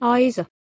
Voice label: "aiza", Proto-Germanic